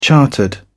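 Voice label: "chartered", British English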